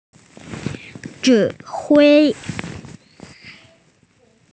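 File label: "command", female; zhi3hui1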